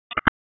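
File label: Public Safety Tactical, p25; wake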